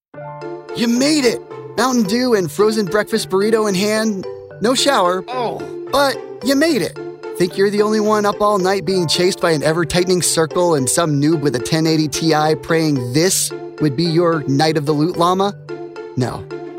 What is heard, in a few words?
ELearning Corporate